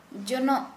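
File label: Santali female broom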